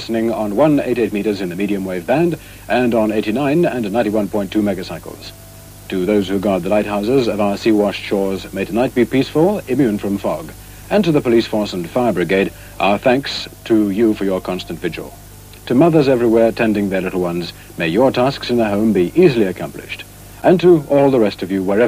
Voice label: manx radio station ids and close